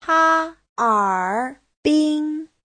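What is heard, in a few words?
"Hā'ěrbīn", Mandarin Chinese; 哈尔滨; Cáp Nhĩ Tân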